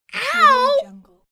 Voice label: child saying ow